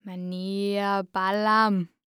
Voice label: Horror Scary Human Female Speaking Spell